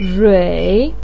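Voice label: "rui", Chinese pinyin, third tone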